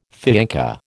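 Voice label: "fiancé", American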